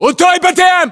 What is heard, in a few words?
landmark near apc, ru